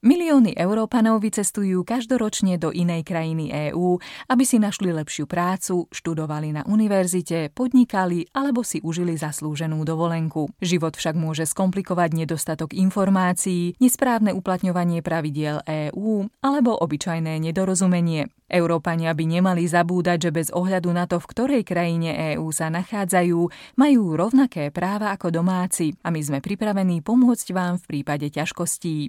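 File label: Slovak, Corporate; GoLocalise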